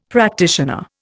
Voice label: "practitioner", Indian; Dictionary

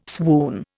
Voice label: "swoon", Indian

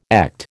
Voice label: "echt", American

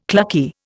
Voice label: "clucky", Indian

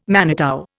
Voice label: "manitou", Indian

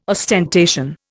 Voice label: "ostentation", Indian

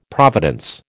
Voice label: "providence", American

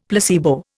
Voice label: "placebo", Indian; Dictionary

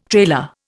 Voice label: "trailer", Indian

